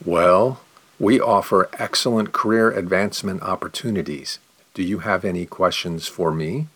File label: question slow